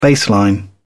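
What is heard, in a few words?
"baseline", British English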